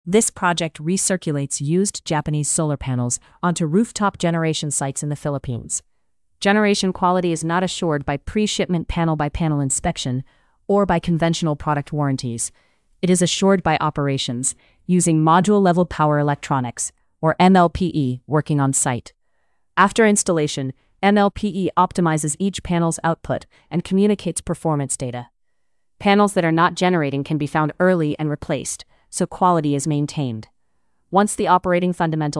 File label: narration en; second life solar